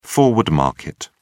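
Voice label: "forward market", English, British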